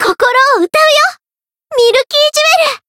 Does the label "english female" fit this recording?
No